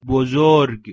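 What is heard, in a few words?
"buzurg", Persian, male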